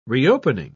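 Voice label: "reopening", English, British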